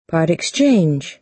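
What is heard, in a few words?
"part exchange", American English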